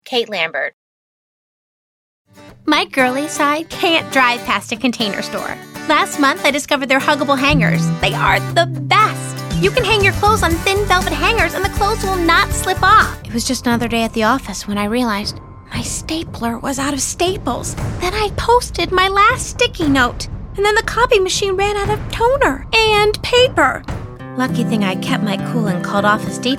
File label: commercial; Innovative LA